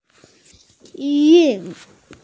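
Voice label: "zhi4 yu4", Mandarin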